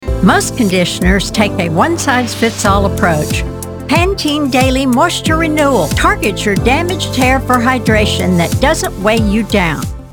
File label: Commercial Persuasive Confident